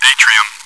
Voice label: chitchat, bot, voice commands; svencoop